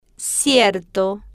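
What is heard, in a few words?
"cierto", Spanish, slow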